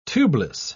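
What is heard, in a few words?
"tubeless", British English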